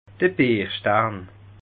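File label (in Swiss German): "bierstarn", Bas Rhin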